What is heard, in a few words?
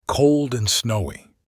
male Cold and snowy